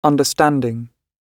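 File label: "understanding", British English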